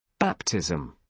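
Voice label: "baptism", British English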